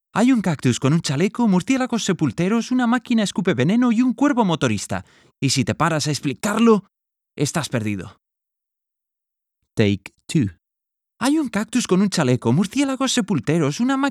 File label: Spanish